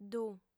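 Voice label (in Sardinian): "ddu", female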